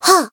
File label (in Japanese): Voice attack, jp